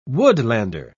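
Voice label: "woodlander", British English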